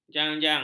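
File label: "janjang", Malay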